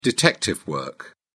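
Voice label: "detective work", British English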